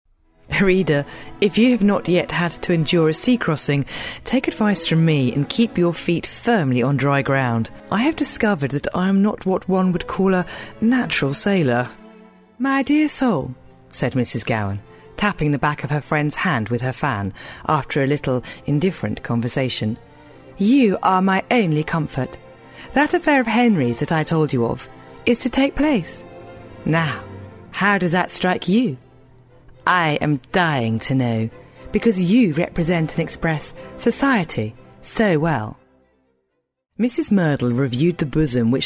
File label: audiobook